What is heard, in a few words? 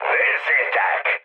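Hungarian enemy detected mood high, speech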